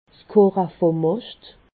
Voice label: "moscht", alsace